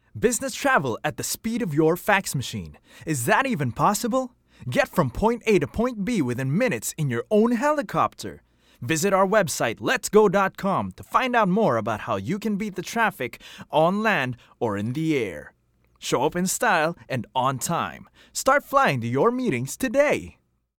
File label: English (Promo, Commercial)